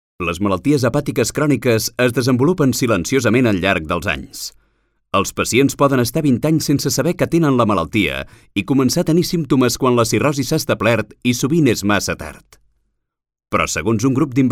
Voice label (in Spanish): Masculino